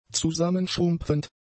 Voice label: "zusammenschrumpfend", German; adjectives